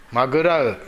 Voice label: "magurau", minamiyamagata